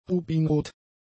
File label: "rubinrot", German; adjectives